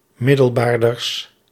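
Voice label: "middelbaarders", Dutch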